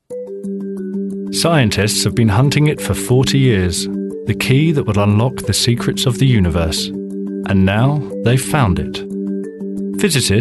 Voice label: Voicereel Documentaries